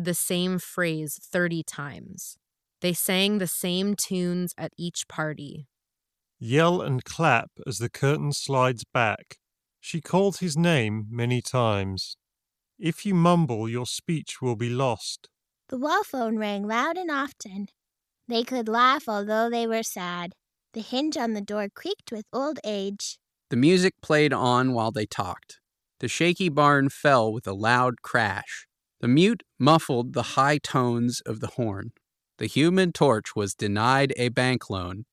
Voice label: dongle, Ideal microphone sample; Razor Blackshark V2 Pro

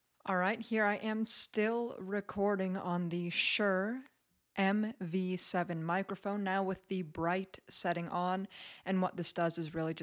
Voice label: Shure MV7 bright microphone

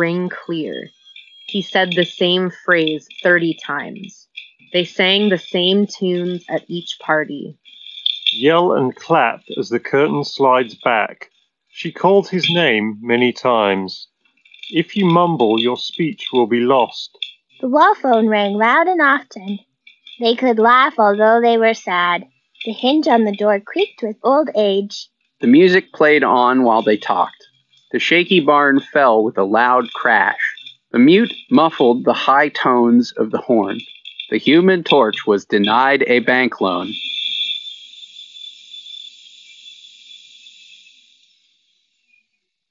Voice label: Office microphone sample; Nothing Ear 1

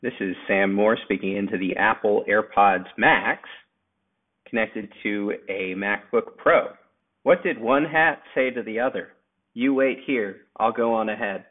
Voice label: Airpods max macbook mic sample

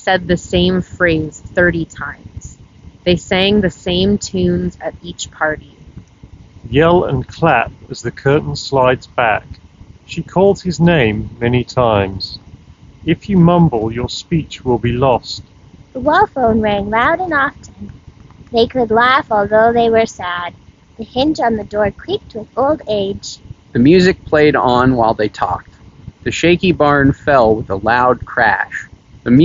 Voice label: Jabra Elite 45H Wind microphone

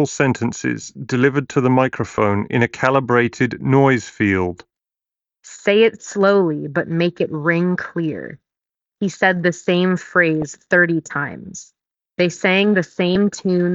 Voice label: Sony WH-1000XM6 office

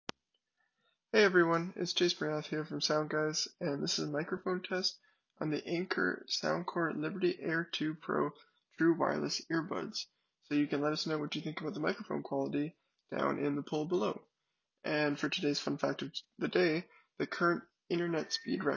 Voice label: Anker Soundcore Liberty Air 2 Pro mic test

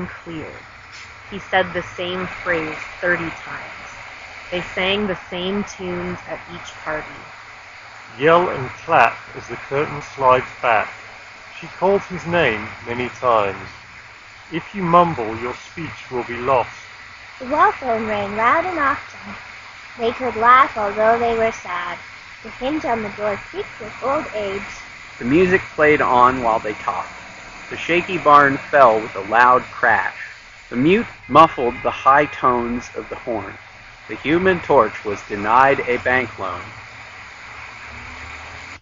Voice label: Street microphone sample

